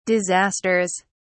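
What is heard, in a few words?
"disasters", British English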